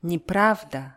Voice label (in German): "neprawda", russische